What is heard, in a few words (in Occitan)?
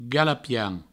"galapian", provençal; vocabulaire fondamental du provencal